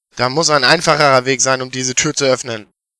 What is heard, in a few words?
dialog